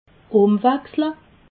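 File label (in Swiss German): "umwachsle", Alsatian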